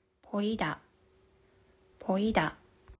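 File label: "見える", Japanese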